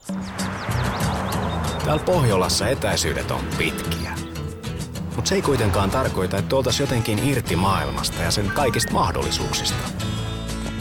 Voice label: Finnish; Scandinavian, Male, 20s-40s